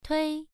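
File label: "tui", Mandarin Chinese syllable, first tone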